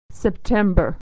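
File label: "september", American English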